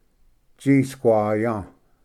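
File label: "tsisquaya", Cherokee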